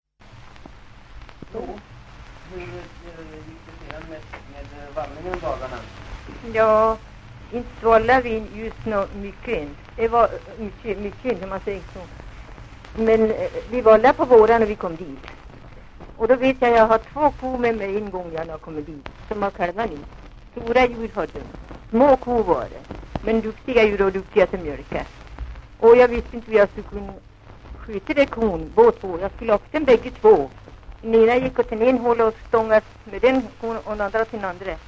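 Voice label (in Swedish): Järbo Gästrikland, dialekter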